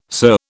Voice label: "sir", British

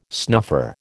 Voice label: "snuffer", American

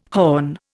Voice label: "horn", Indian

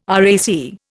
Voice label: "RAC", Indian

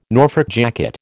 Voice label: "Norfolk jacket", American

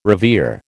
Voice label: "revere", American

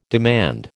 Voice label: "demand", American

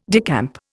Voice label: "decamp", Indian

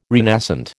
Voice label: "renascent", American

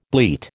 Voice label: "bleat", American

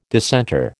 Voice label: "dissenter", American

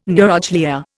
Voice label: "neuroglia", Indian

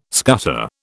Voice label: "scutter", British

